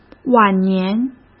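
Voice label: "晚年", Mandarin Chinese; chinese-flashcards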